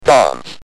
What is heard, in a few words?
"Dance", UK Speech